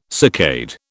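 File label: "saccade", British English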